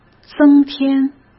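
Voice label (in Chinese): "增添", Mandarin; chinese flashcards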